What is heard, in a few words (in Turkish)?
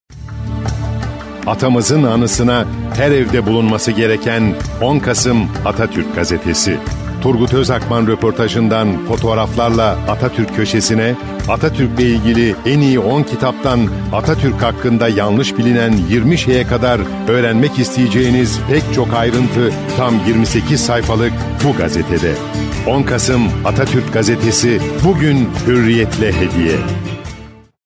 reklam tanitici; hurriyet